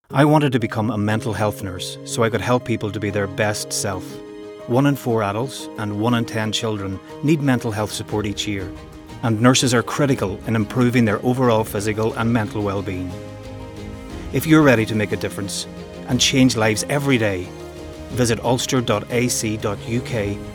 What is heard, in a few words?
Mental Health Nursing Male